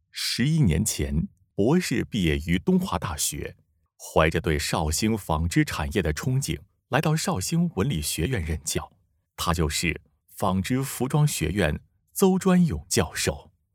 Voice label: 旁白 试音

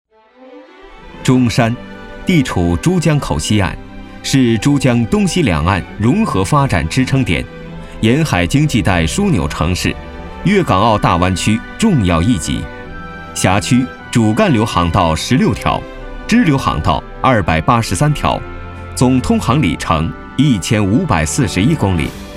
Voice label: 男 党政专题; 中山海事

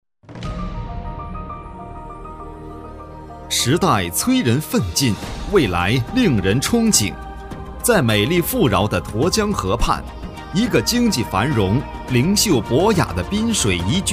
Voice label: 男-旅游城市-宣传带音乐; 内江宣传带音乐

